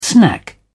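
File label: "snack", British English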